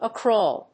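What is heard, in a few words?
"a crawl", English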